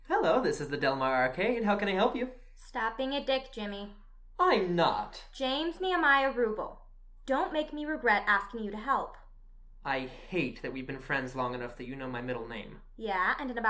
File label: phone call